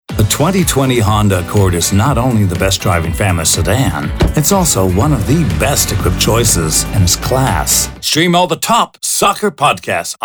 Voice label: vo commercial